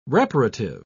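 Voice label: "reparative", British English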